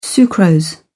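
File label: "sucrose", British English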